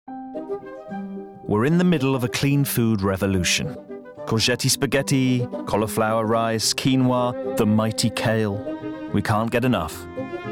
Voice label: Narrative